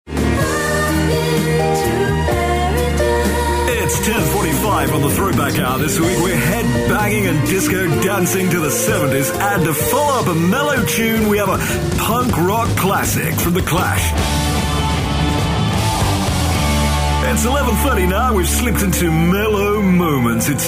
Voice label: cheesy dj